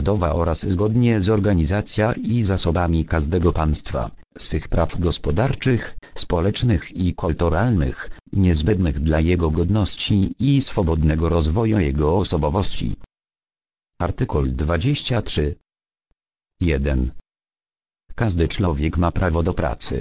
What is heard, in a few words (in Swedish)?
Polska